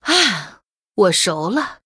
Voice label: Female Murloc Concede, zh

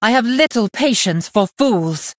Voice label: Blood Elf Threaten

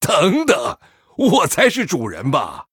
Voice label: Male Human MIRROR START, zh

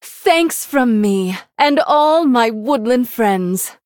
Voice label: Female Human Thanks; en

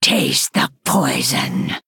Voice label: Female Night Elf Attack

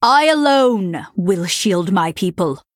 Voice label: Female Nightborne Start

